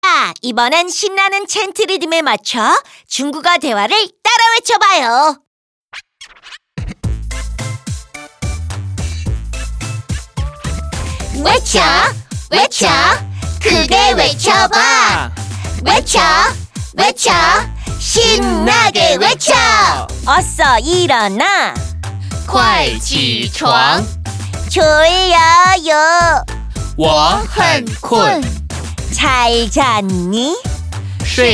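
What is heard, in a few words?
sentence Chinese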